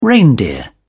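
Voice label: "reindeer", British English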